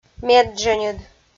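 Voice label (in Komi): "меддженьыд", Komi, female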